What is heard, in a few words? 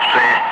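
"5", spoken; Ka-50; Wingman; Digits; DCS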